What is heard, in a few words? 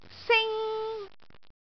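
"sing1", Cantonese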